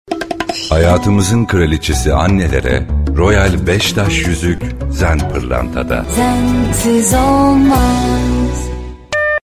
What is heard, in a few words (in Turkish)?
reklam karizmatik; zen